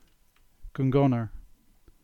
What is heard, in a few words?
Cornish; Connor Downs